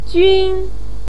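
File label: "jun" first tone, chinese, voice pinyin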